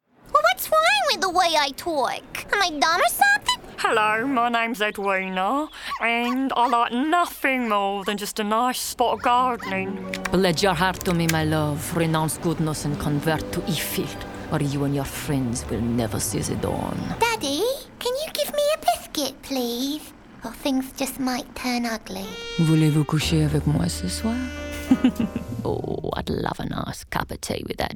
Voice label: animation